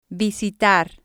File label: "visitar", Spanish